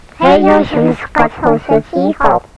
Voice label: alien speech